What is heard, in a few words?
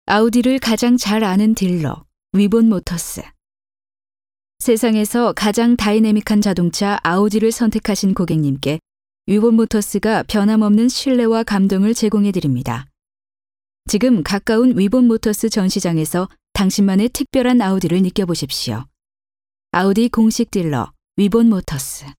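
Korean male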